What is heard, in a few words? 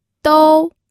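"dou", Mandarin Chinese, first tone; pinyin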